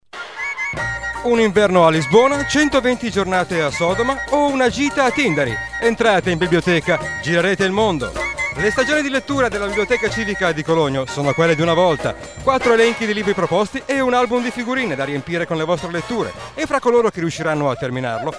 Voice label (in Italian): spot